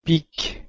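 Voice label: "pik", Proto-Indo-European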